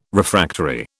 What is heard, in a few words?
"Refractory", British